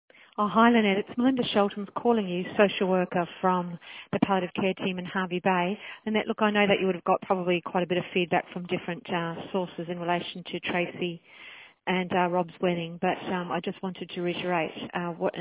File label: voice message